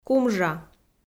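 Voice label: "кумжа", Komi, female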